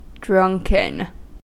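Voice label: "drunken", US English; pronunciation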